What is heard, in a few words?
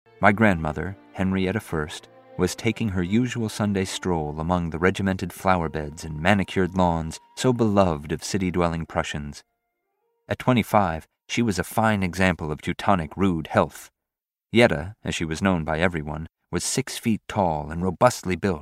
Audiobook; Commercial Demo; Voiceover